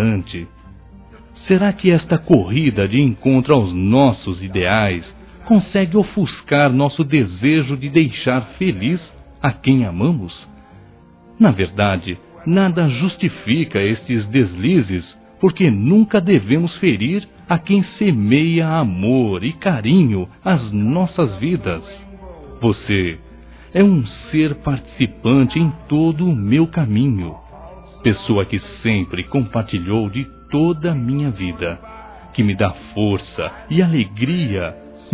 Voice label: Desculpas Aniversário de Casamento - Masc; Telemensagens 2017

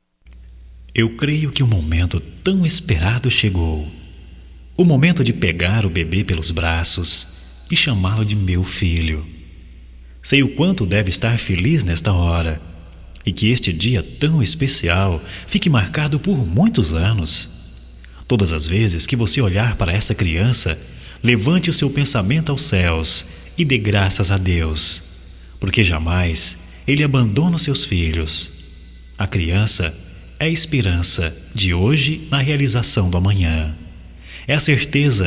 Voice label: PARAB NASC DO BEBE M, Só Voz, masc; Telemensagens; Telemensagens 2017

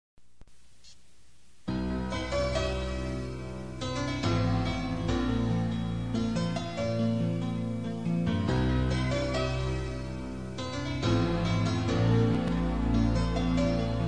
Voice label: AMORES QUE SURGEM MASC; Telemensagens

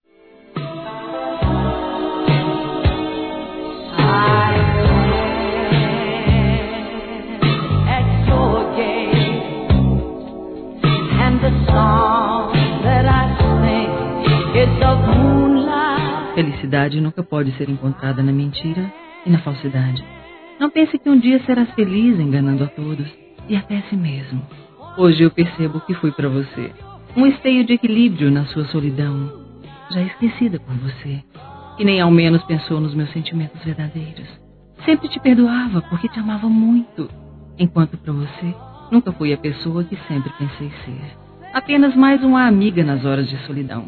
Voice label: Desencanto Feminino; Telemensagens; Sensuais

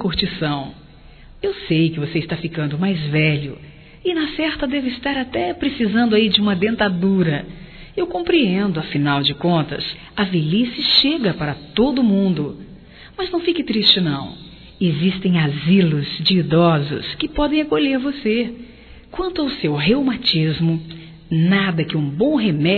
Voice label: Aniversário zoação, Telemensagens; Aniversarios; Telemensagens 2017

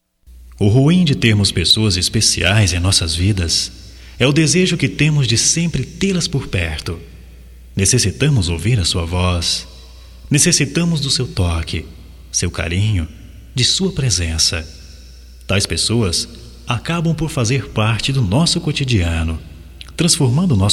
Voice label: DESPEDIDAS GERAL, Só Voz, masc; Telemensagens; Telemensagens 2017

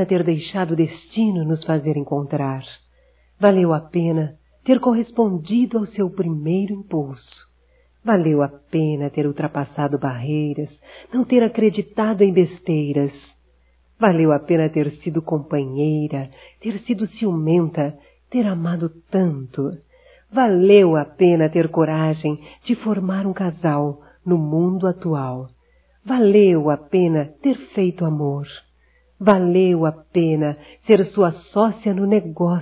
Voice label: ANIV NAMORO, fem; Aniversarios; Telemensagens; Telemensagens 2017

